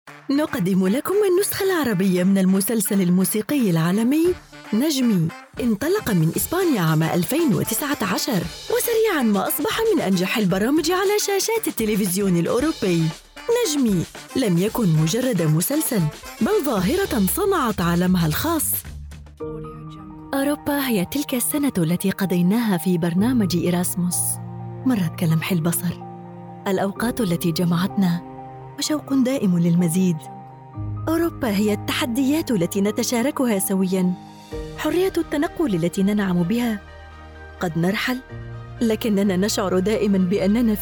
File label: MSA Teaser samples MSA Inspirational Comercial; Nagwa